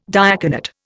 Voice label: "diaconate", Indian